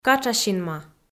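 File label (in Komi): "катшасинма", Komi, female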